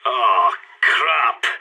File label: bomb exploding, sas; agents